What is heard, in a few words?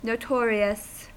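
"notorious", US English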